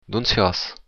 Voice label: where from, occitan